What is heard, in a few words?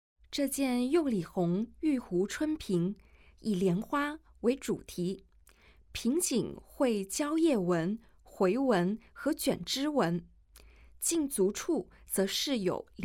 Mandarin; audio guide; g3-ming-dynasty-ceramic-treasures-from-the-palace-museum